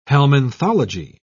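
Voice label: "helminthology", British English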